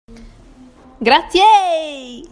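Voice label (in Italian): "grazieeeeeeee", Italian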